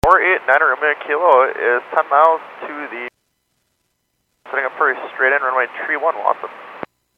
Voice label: Airports 122.7, Radio; KSTE